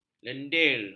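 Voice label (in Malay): "lendir", Malay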